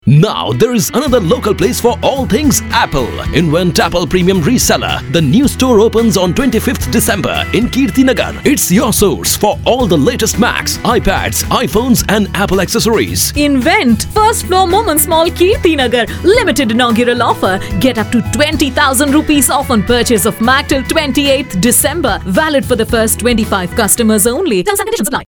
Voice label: Male